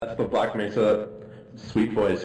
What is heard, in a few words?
sweet voice